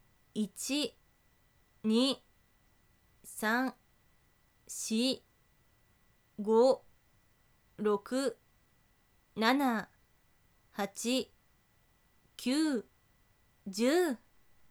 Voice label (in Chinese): 普通10次, 女生为你进行健身计数的语音 姐姐; 仅计数